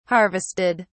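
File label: "harvested", British English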